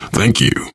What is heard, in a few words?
thanks, player survivor voice biker